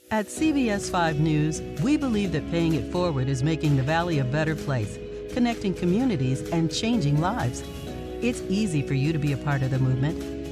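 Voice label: Promo, Engaging, Authentic, Warm Female; Community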